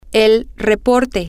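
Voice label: female, spanish; the Report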